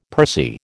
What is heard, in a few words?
"pursy", American